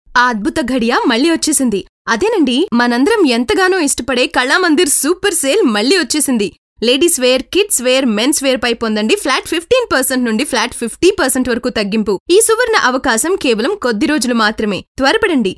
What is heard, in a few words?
Telugu, Narration